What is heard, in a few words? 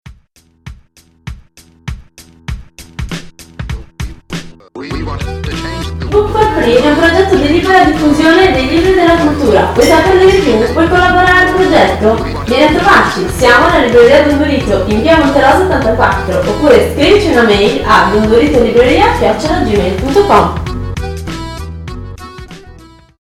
Radio Spot